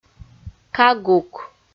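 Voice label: "кагук" Komi, female; kpv audio dict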